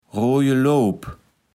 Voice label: "Rooje Loop", Platt

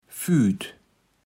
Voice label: "Füüt", Platt

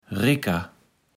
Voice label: "ricker", Platt

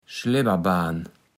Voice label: "Schlebberbahn", platt

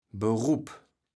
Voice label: "Berup", kaevels platt

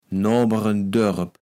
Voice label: "Noaberen Doerp", Platt

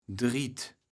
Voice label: "Driet", kaevels platt

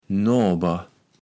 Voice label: "Noaber", Platt

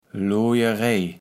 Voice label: "Loojerej", kaevels platt

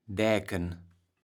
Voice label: "Däken", kaevels platt

